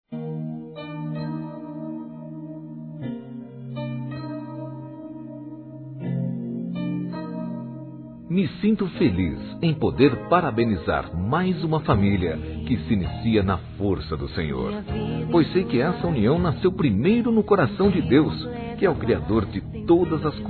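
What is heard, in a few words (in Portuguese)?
PARABÉNS PELO CASAMENTO, feminino; Telemensagens; Telemensagens 2017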